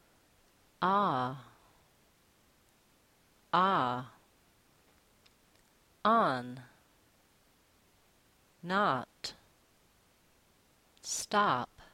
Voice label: vowel ah